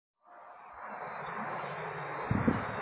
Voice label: Voice Note